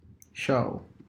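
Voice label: "shall", US English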